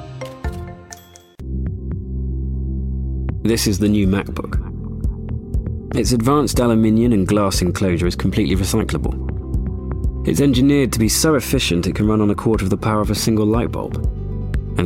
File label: Print Commercial